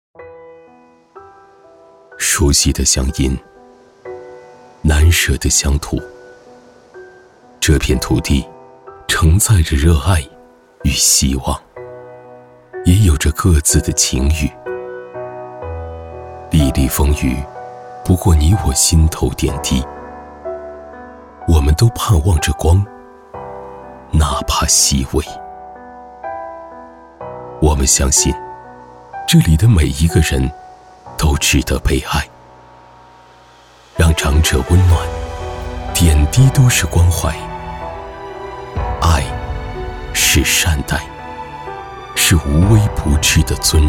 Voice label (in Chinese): 男 走心旁白